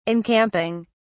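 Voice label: "encamping", British English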